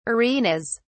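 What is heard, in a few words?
"arenas", English, British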